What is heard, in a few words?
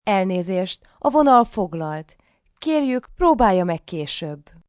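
Line Busy Hungarian, Telephone operator, Hungarian, female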